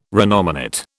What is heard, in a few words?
"renominate", British